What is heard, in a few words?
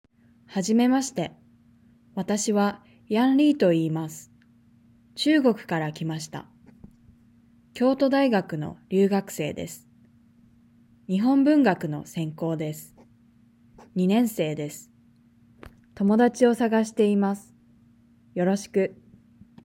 Reading natural; Nakama; OJAD